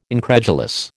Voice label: "incredulous", American